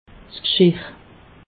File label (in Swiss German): "gschirr", alsace